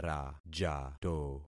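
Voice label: "rajato", concatenated syllables; Affixing experiment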